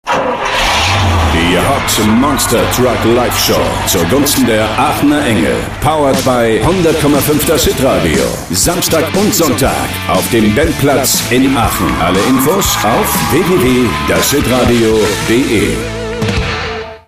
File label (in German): Monstertruckwerbung; Aachener Engel